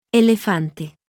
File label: "elefante", Spanish